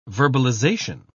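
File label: "verbalization", British English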